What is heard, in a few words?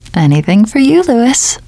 you are welcome, teengirl; player; survivor; voice